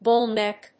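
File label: "bull-neck", English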